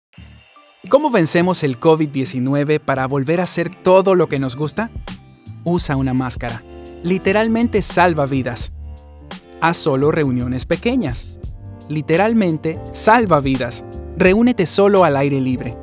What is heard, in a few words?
Spanish; COVID-19 Survival Guide